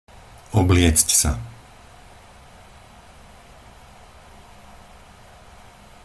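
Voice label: "obliecť sa", Slovak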